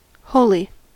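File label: "wholly" English, US; pronunciations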